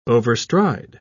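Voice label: "overstride", English, British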